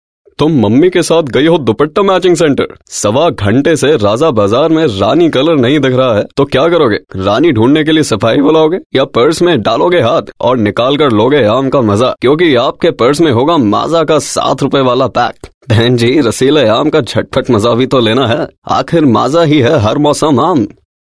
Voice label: Hindi, male voice